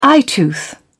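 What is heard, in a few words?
"eyetooth", British English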